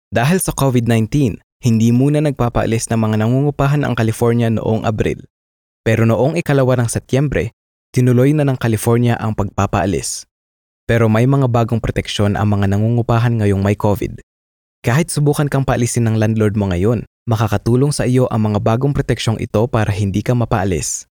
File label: Filipino Caring Natural